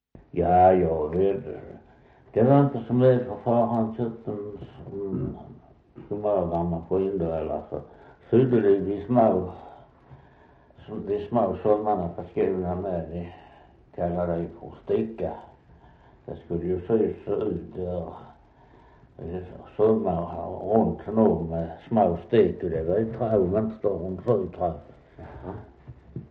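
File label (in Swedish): Västra Torup Skåne, dialekter; Folkminnen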